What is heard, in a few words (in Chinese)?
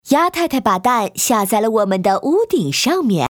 女 动画 动画片 猫女士